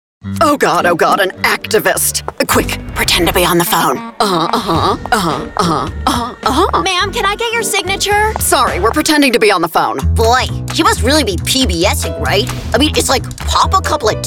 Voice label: Animation